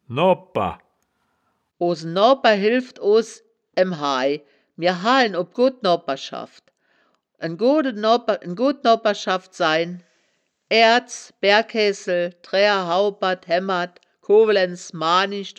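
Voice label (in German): "noabper", Wittlicher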